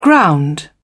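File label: "ground", British English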